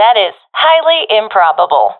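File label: disagree, professional female; vo; Counter Strike Model Pack; CS:GO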